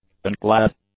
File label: "unclad", English; adjectives